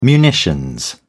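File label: "munitions", British English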